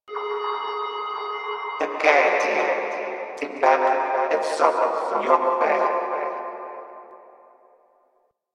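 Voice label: Announcer Female, English; Warframe; Corpus Venus Announcer; Battle Barks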